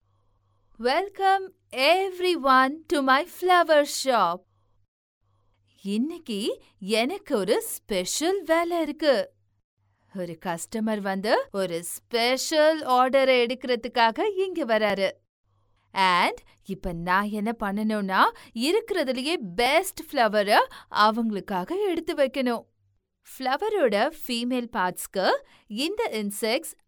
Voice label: Female Tamil VO

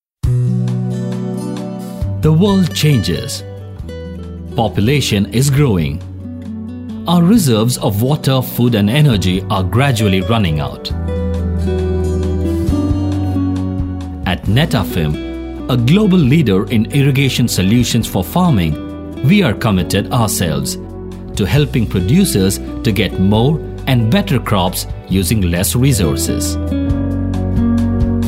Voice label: Male VO Sample English

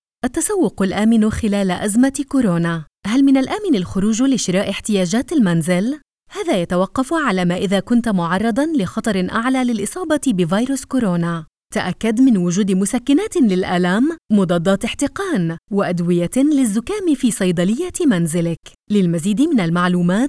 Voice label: Female Arabic VO Sample; Corona

